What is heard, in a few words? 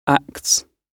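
"acts", British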